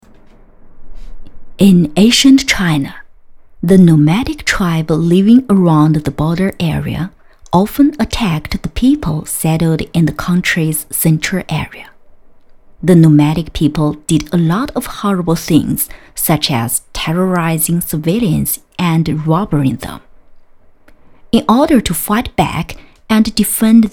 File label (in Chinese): 英文女旁白; 花木兰